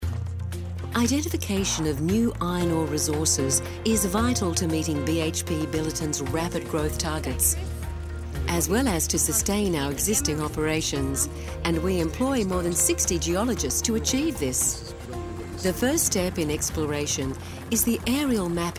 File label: Corporate